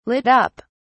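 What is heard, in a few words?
"lit up", British English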